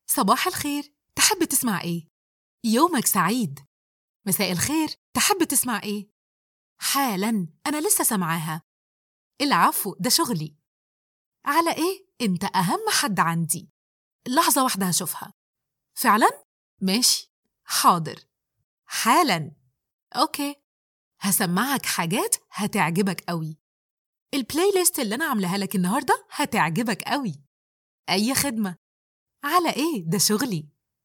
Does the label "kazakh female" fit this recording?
No